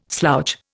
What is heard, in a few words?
"slouch", Indian